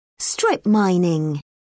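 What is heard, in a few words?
"strip mining", British English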